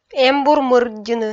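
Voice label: "эмбур мырддьыны", Komi, female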